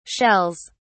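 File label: "shells", British English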